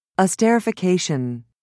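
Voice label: "esterification", British English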